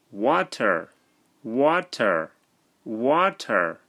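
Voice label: "Water", English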